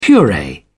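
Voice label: "puree", British English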